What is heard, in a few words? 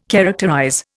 "characterize", Indian